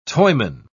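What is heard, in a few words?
"toyman", British English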